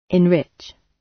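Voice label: "enrich" British English; The arts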